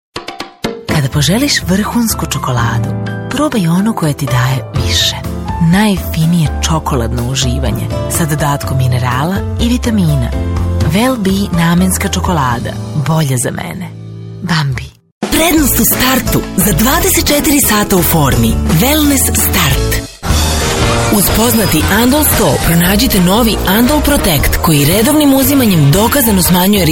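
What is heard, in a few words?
Serbian female commercial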